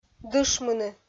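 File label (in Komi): "дышмыны", Komi, female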